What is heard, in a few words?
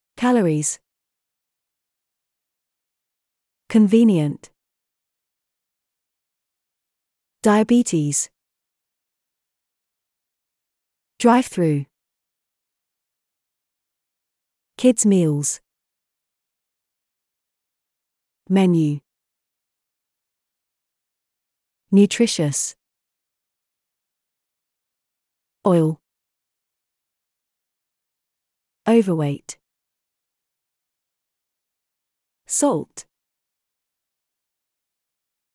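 British; fast food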